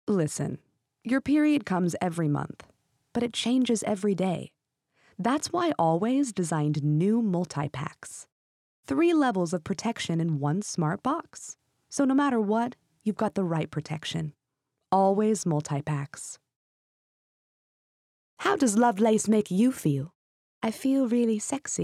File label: Commercial